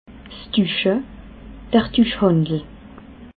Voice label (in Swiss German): "duche", alsace